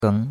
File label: "geng2", chinois; Cours de Chinois